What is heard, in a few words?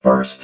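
"first", robot voice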